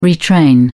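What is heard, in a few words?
"retrain", British English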